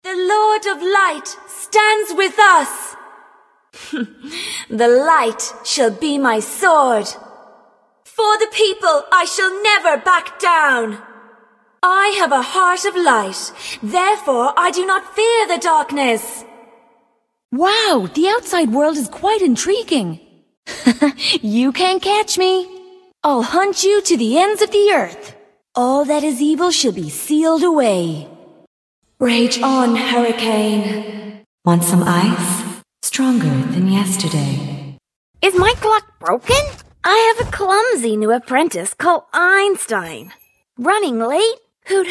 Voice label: irish voiceover video game

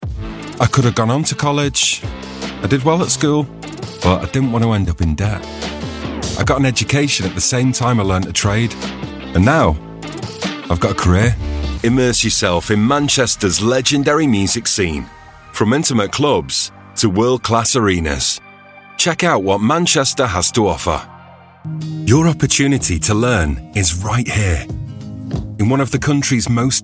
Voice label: northern

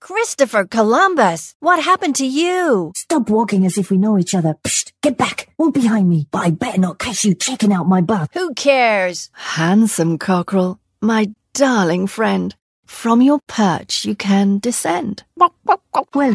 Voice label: character voices

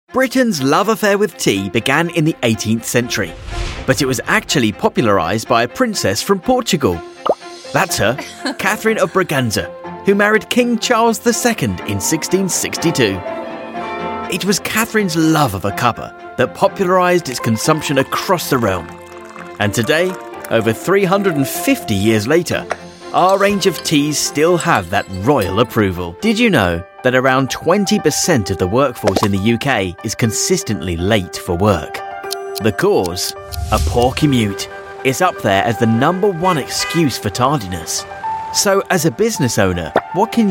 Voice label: corporate

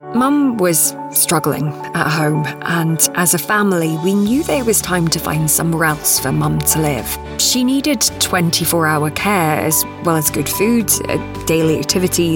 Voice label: english accent

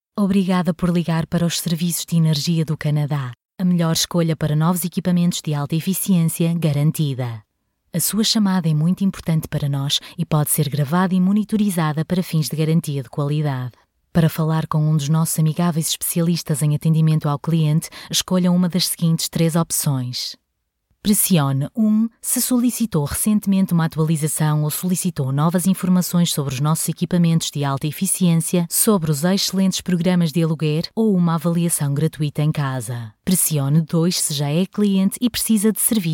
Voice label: portuguese female telephone

